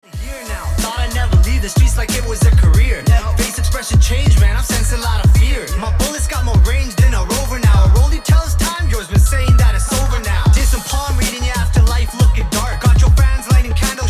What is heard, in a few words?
Rap Version Ringtone